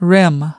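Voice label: "rim", US English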